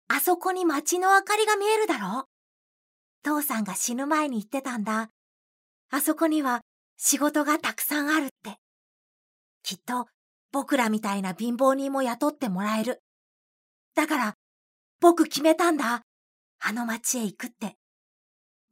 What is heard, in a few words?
voice kids